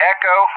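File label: "Echo", English; JTAC; Alphabet; DCS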